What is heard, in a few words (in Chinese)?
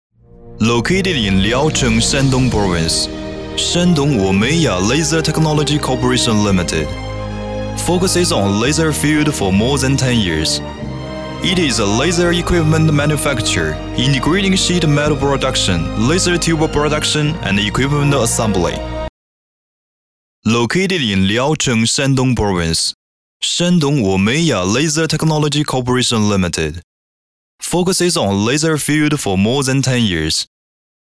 英文企业宣传片科技企业大气品质